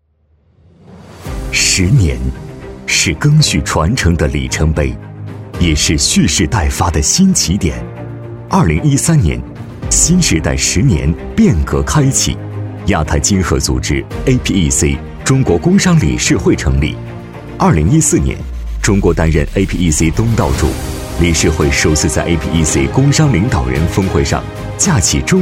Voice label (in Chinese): 男 大会 大气 厚重; APEC中国工商理事会